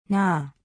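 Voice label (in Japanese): "な", 日本語; 五十音基礎聽解題目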